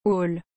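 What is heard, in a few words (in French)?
"hall", French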